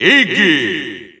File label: Russian Announcer; SSBU